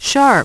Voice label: Key Sharp, Voice, Prompts; Opcode Systems

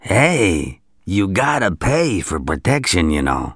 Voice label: Extort, Male; gangsters; English

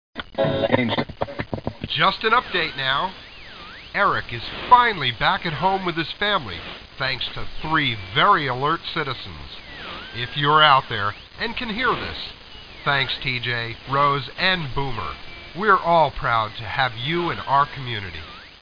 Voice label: radio DJ

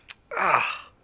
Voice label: guy sad; Classic Cribbage 1.0

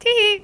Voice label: gal happy; Classic Cribbage 1.0

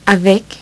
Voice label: "avec", French; French Pronunciation 1.2

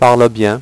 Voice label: "parle bien", French; HyperSound™2

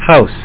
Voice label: house, English; WordMatch

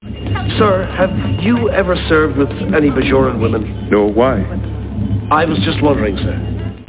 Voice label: BAJOR WMN; Millennium Gold 2000

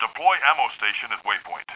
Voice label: male; Starsiege; Tribes Demo

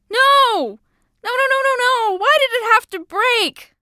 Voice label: pendant Break, english; Keepsake; crescent Coast Waterway

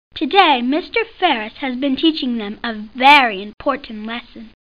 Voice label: Narrator; T.J. Finds a Friend

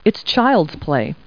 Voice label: people, kid

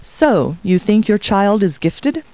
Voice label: people, kid

